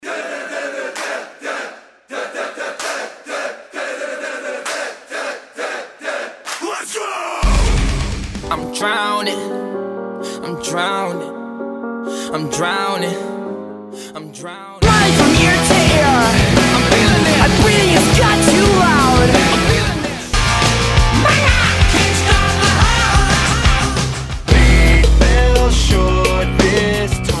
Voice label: Music Promo

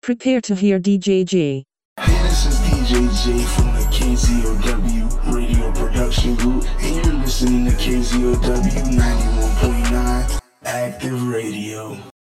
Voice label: sound effect liner

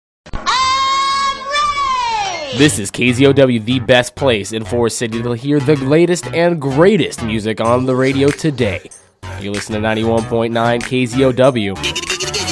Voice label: TV Liner

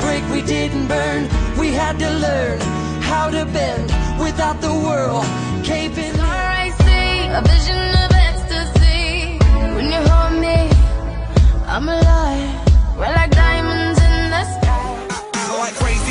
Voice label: Music Liner

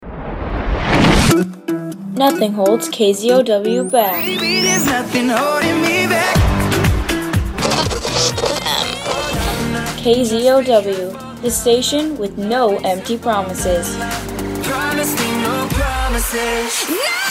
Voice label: Music Promo

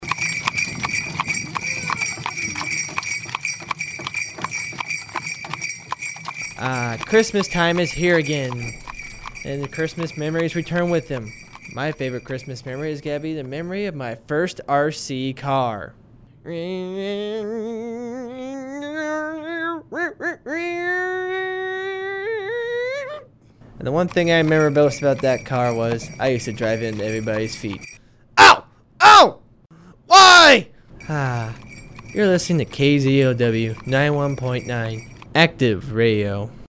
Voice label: chrismas liner

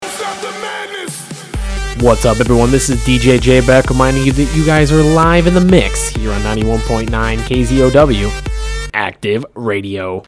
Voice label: Bumper

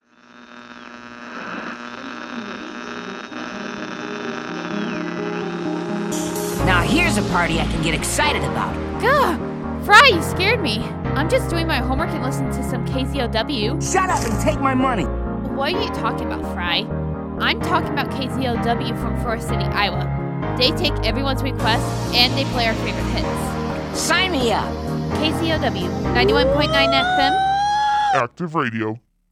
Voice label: TV Liner

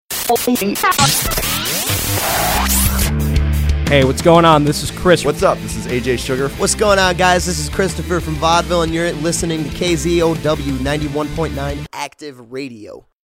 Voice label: Radio Vaudeville; Active Radio

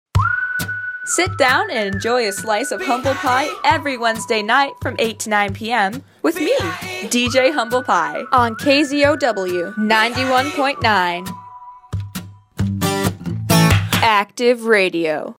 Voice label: Solo Liner

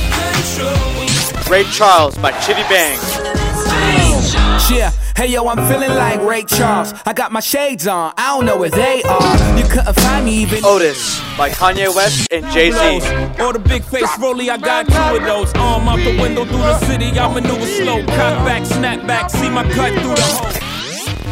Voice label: Radio; New Year New Music; Active Radio

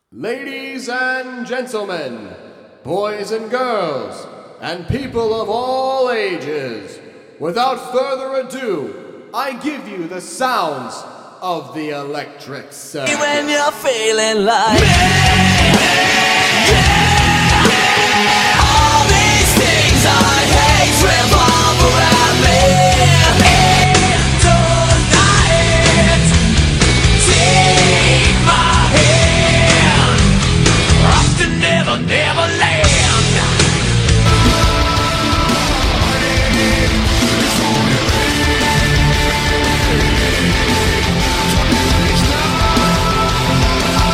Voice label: Music Promo

